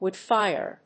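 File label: "woodfire", English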